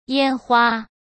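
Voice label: "烟花", Mandarin Chinese; hsk; chinese-flashcards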